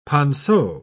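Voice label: "ponceau", British English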